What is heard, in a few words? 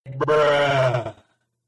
bruh slow